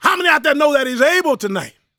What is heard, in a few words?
PREACHIN, Gospel PREACHER; Spectrasonics; Vocal Planet